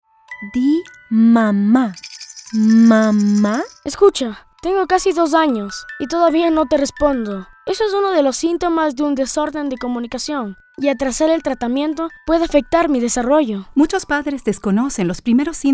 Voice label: radio PSA Spanish